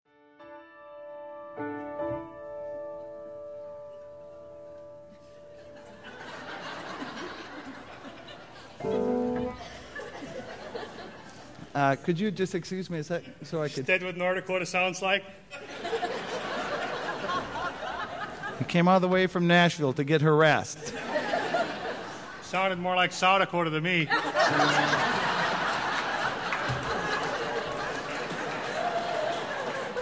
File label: monologue; Monologue Between Two People